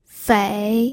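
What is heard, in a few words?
"fei", pinyin, third tone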